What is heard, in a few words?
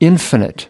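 "infinite", US English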